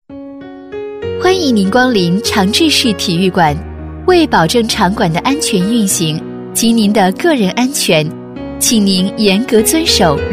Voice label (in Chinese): 女S112 国语 女声 语音播报 【温馨提示】体育中心提示公告-温柔 积极向上 亲切甜美